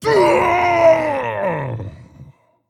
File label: Male Dragon Death